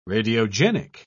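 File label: "radiogenic", British English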